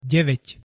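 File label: "dievať", Slovak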